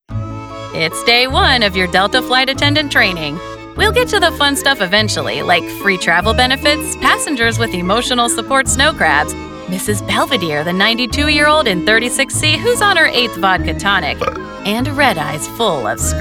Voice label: eLearning